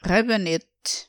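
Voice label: "rabanete", European Portuguese; legumes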